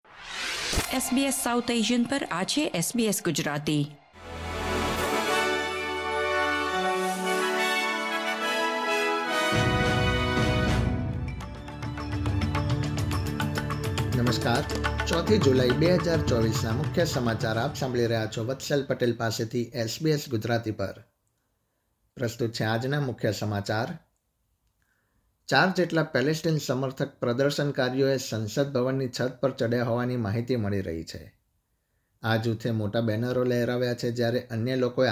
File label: gujarati news bulletin; sbs